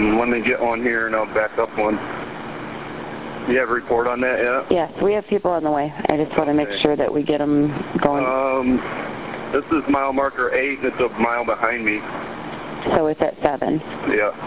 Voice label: wreck 9-1-1 call man reporting vehicles all over the place